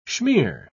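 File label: "schmeer", British English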